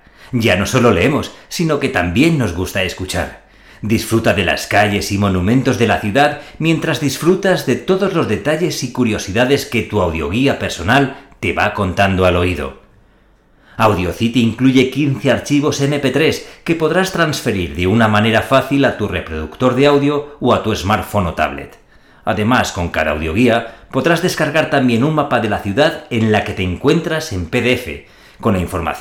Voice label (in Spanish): corporativo